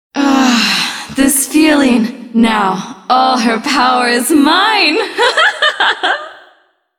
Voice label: cinematic voice; lineage2